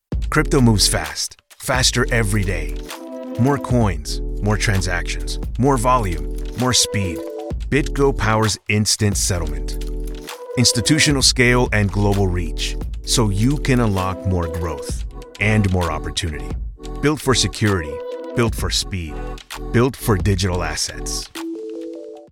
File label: Corporate, Voice Over